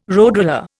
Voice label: "roadroller", Indian

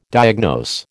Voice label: "diagnose", American

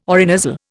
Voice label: "orinasal", Indian

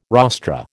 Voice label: "rostra", American

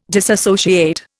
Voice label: "disassociate", Indian

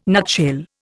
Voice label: "nutshell", Indian

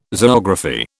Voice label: "zoography", British

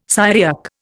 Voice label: "Syriac", Indian

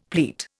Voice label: "pleat", Indian; Dictionary

